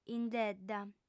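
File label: "indedda", female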